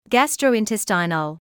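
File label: "gastrointestinal", British English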